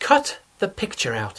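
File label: "vystrihni obrazek", Czech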